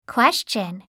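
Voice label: "question", English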